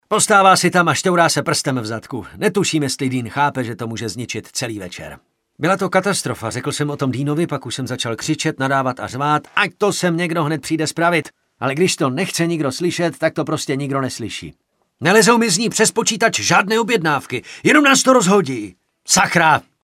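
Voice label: Male Czech drama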